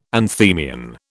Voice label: "anthemion", British